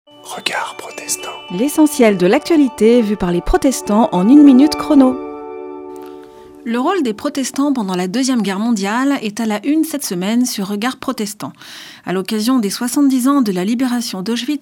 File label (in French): Spot radio; Regards protestants